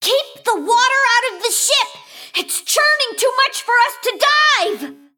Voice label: Female Mecha Gnome